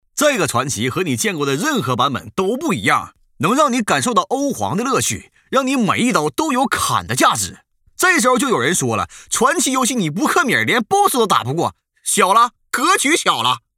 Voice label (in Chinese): 东北方言 男 大音 东北方言 信息流; 方言配音; 传奇信息流